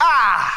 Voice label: "ay", voice

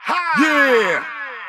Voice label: "ha yeah", voice

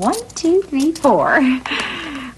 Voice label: "1 2 3 4" sigh; numbers and letters

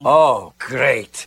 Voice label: "oh great", male; Voice; expletives

